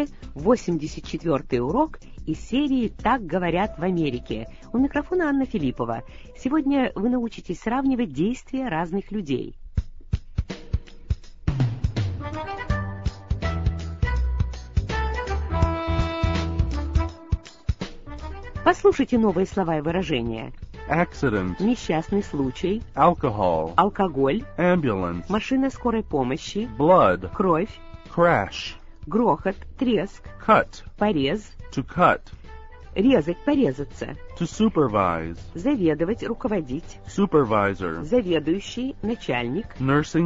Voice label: russian english